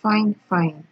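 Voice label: "fine fine", Nigerian Pidgin, female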